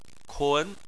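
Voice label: "kun", Chinese, second tone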